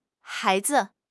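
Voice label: "hai2 zi5", Mandarin Chinese; HSK2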